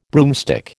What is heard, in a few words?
"broomstick", American